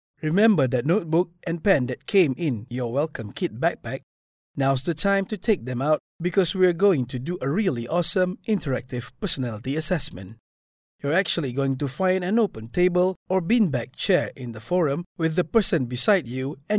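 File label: Malay, Malaysia, male; voice over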